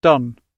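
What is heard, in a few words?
"dun", English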